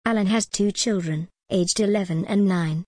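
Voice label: British English, example, alan has two children aged eleven and nine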